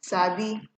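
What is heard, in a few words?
"sabi", Nigerian Pidgin, female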